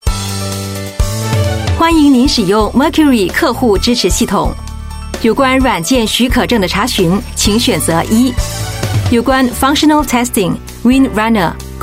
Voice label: Mandarin IVR, China Female